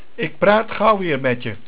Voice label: "Praat" Dutch

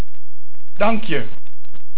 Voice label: "Dank", Dutch

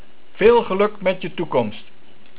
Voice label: "Geluk", Dutch

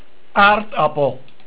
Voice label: "Aardappel", Dutch

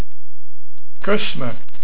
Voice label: "Kus", Dutch

